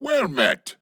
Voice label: Ost Male en; Cetus